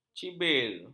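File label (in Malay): "cibir", Malay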